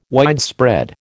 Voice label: "widespread", American